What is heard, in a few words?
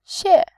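"xie", Mandarin Chinese, tone 4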